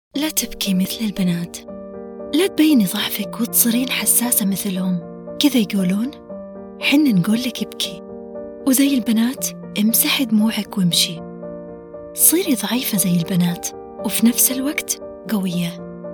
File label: voiceover